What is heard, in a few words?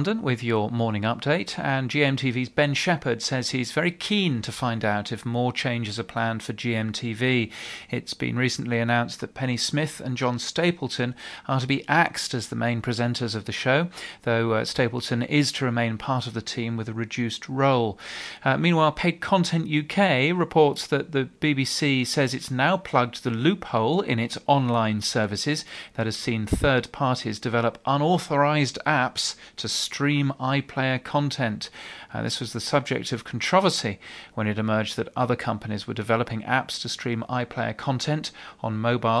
tv news test